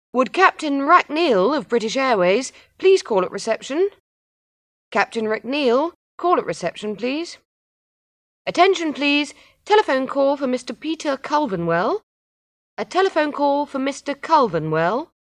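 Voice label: Airport; Flight Announcements; Female Flight Announcement, British Airways To Sydney Australia.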